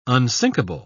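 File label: "unsinkable", British English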